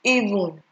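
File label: "even", Nigerian Pidgin, female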